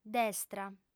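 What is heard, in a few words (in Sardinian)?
"destra", female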